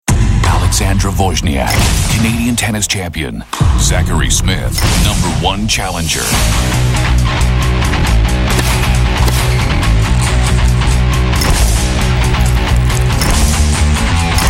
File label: TV Commercials; BUITENLANDSE VOICEOVER; stemacteur